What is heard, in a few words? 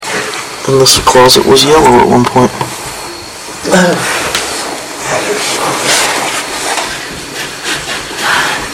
love, evp; salliehouse